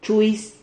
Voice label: "chuis", Silabas

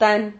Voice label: "tan", Silabas

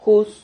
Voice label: "jus", Silabas

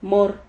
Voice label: "mor", Silabas

